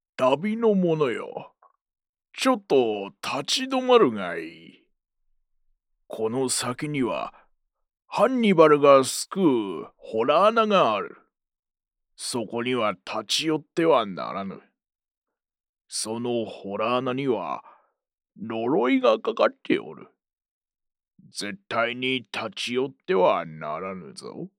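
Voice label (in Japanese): male, 声優, おじいさん; narrator men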